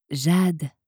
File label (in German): "jade", franzoesische maedchennamen